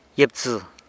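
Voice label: "gyibtsë", San Lucas Quiaviní Zapotec; organ-pipe cactus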